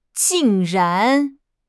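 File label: "jing4 ran2", Mandarin Chinese; HSK4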